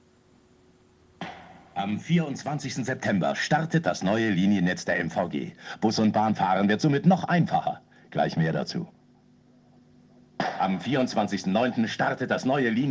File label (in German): Radiospot; B-Linie